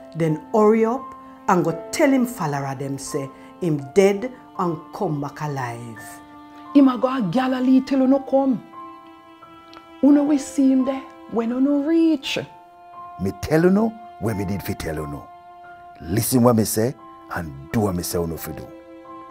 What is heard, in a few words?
verse, jamaican creole